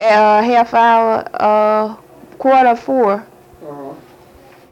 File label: Conversation with 31 year old black female Detroit Michigan, american english dialect